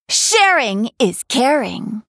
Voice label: Female Human Attack